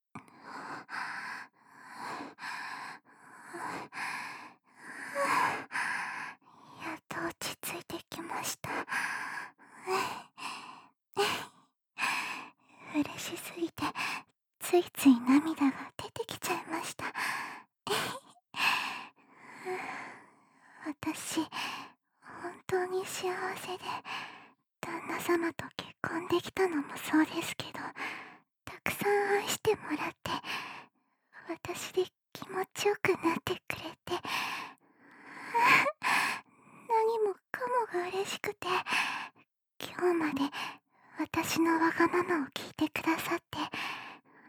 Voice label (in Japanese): ピロートーク; 改めて永遠の愛を誓うピロートーク; ASMR